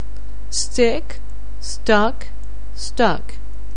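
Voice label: "stuck", English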